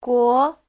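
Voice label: "guó", Mandarin Chinese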